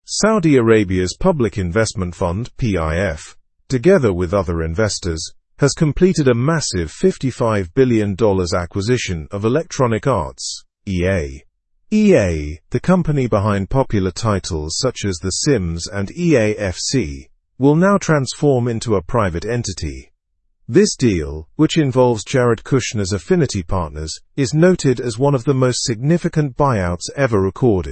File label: learn english through news upper intermediate; saudi investment fund acquires electronic arts normal